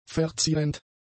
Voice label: "verzierend", German; adjectives

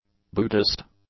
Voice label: "Buddhist", English; adjectives

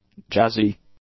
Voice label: "jazzy", english; adjectives

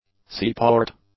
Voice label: "seaport", English

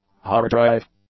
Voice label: "hard drive", English; nouns

